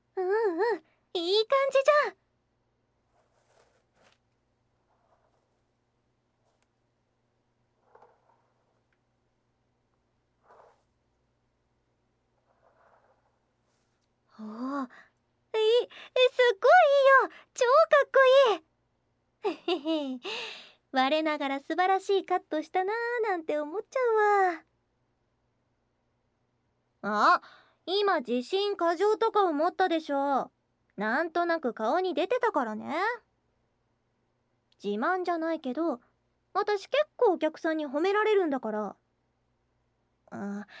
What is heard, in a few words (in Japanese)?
耳元で褒めまくる囁き（両耳）; 散髪後、鏡で髪型を見せながら; 高級ギャル美容院の泡々特別サービス